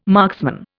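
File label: "marksman", Indian English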